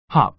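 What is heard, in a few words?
"hop", American English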